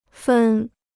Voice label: "分", Chinese

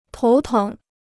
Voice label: "头疼", Chinese

